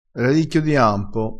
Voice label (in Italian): "radicchio", crespinese